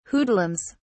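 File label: "hoodlums", British English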